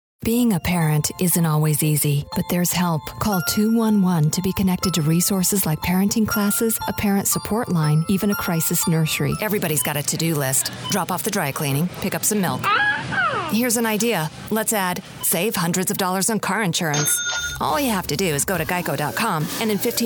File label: Commercial Female; commercial voiceover